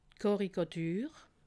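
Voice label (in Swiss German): "karikatur", Alsatian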